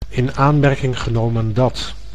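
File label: "in aanmerking genomen dat", Dutch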